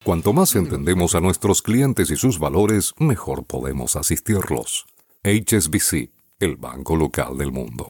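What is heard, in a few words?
Latin American Spanish commercial; GoLocalise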